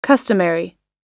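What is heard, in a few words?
"customary", American English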